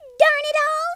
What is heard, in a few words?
traitor, Southern Belle; speech